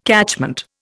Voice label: "catchment", Indian

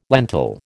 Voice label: "lentil", American

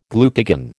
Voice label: "glucagon", American

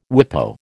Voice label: "WIPO", American

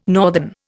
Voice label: "northern", Indian

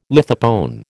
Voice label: "lithopone", American

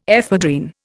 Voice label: "ephedrine", Indian

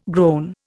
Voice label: "groan", Indian

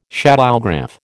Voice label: "shadowgraph", American

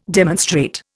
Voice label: "demonstrate", Indian

